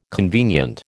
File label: "convenient", American